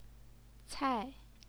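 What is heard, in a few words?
"cai", Mandarin Chinese, fourth tone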